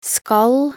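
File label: "skal", Norwegian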